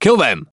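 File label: Voices Units Allied Sniper attack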